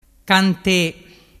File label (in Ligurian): "cante", dialettu